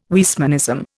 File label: "Weismannism", Indian